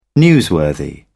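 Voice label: "newsworthy", British English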